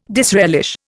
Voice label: "disrelish", Indian